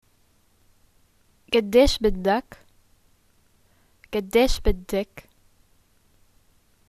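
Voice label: "how much", Jordanian Arabic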